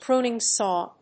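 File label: "pruning saw", English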